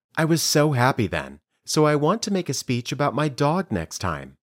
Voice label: Dictation